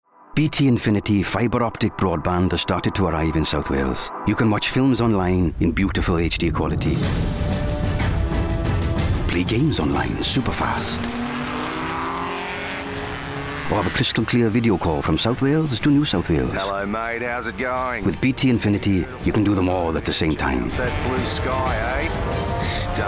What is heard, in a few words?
LIGHT WELSH ACCENT; BT INFINITY